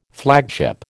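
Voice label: "flagship", American English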